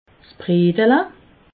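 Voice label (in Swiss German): "bredele", Alsatian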